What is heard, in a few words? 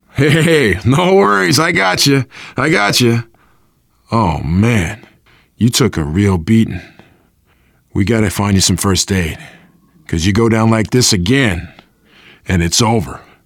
revive critical friend, voice, biker; Left 4 Dead 2; survivor